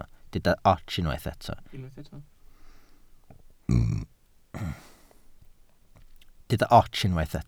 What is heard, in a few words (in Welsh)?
"ots", Welsh